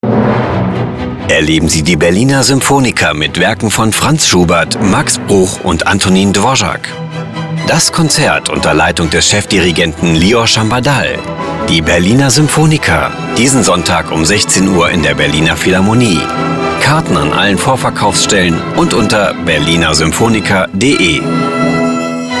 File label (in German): Entertainment Radiowerbung